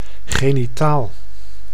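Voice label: "genitaal", Dutch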